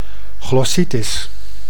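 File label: "glossitis", Dutch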